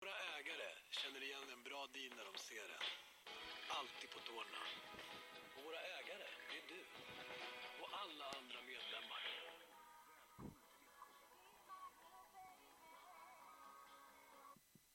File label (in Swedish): reklam; coop